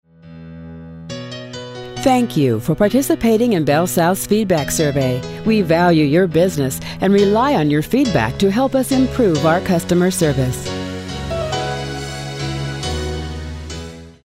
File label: closing on hold, voiceover